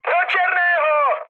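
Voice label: bomb success, Czech, mood high; speech; War Thunder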